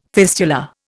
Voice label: "fistula", Indian

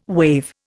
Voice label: "wave", Indian

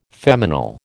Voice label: "feminal", American

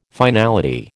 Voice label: "finality", American

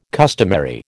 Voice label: "customary", American

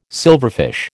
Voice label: "silverfish", American

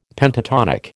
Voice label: "Pentatonic", American

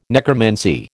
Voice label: "necromancy", American

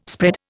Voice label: "spread", Indian

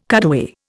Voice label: "cutaway", Indian

